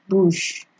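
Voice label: "bush", Nigerian Pidgin, female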